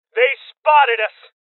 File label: Male Young; Alarm State; Rebel Cops